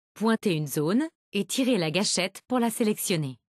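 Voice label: select zone, voiceovers, Canadian French; retaildemo; Windows; Mixed Reality Learning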